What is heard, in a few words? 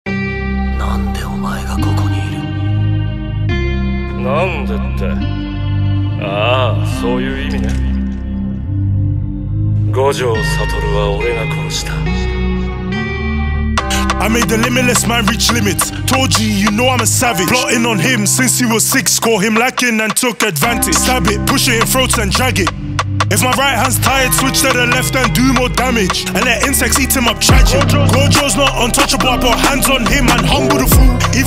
uk drill rap; jujutsu kaisen; gojo